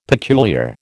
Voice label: "peculiar", American